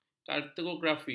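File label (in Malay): "kartografi", Malay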